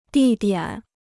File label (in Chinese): "地点", Chinese